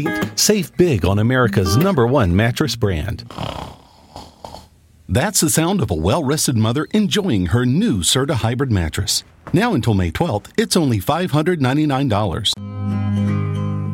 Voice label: Commercial Promos